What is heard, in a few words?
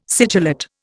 "sigillate", Indian